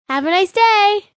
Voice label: Have A Nice Day, Female, child; Talking Sound Effects

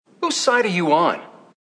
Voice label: Whose Side You On, Male; Talking; Voice Box

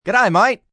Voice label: "G'day Mate", Greetings, male; Greetings And Goodbye; VoiceBox

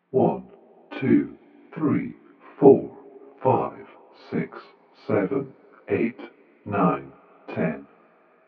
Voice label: Counting 1 To 10 Epic Male Voice; Talking Sound Effects; Human Voice Sound Effect

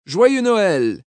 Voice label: French Merry Xmas, Male; VoiceBox

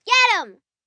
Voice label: Get Em, 1 child, 11 yrs; Talking; VoiceBox

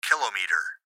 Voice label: Gps Voice, Male; Laptop Speaker Adult Male; Recorded Voice

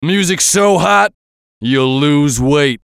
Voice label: VOICE COMICAL; Radio

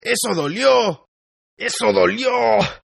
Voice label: Toughguy Hurt Spanish, Tough Hispanic Male; Exclamation

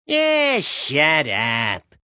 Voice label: Eh Shaddup, Male; Exclamation; Voice Box

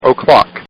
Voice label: Man Says "O'Clock"; Talking; Sound Effects:Voice

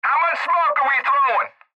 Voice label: Cb Trucker Radio; Radio Voice; Blastwave

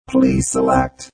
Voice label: Robot Voice "Please Select"; Lynne Music

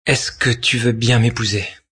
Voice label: French Marry Me, Male; Talking Sound Effects

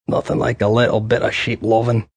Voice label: Like Sheep Lovin, Male; Sex Sound Effects; VoiceBox; Twistedtracks

